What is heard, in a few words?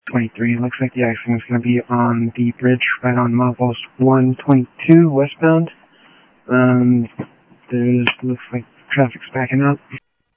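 Sheriff West County, scanner audio trunk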